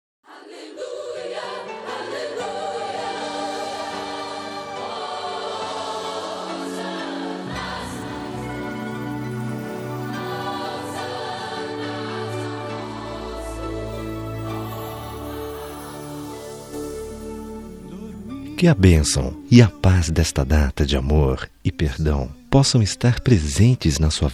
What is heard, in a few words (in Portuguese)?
Feliz Natal Religioso, M